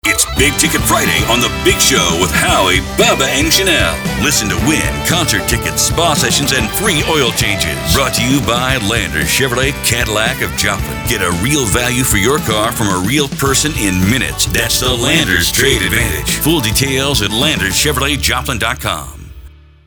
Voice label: Morning Show